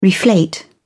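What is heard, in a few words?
"reflate", English, uk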